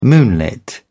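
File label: "moonlit", British English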